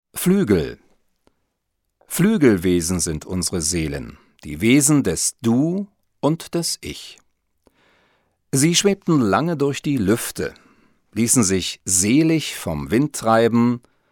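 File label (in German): deutsch, Telefonansagen männlich; Sprecher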